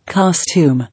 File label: "Costume", English